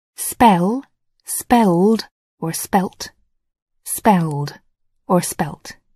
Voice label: "spell", English

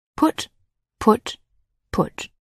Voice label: "put", English

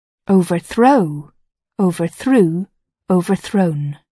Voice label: "overthrow", English

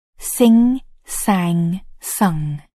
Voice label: "sing", English

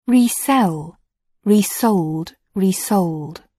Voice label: "resell", English

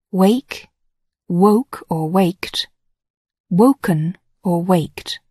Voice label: "wake", English

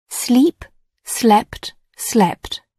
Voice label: "sleep", English

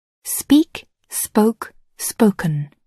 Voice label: "speak", English

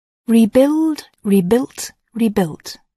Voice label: "rebuild", English

